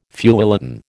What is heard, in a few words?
"feuilleton", American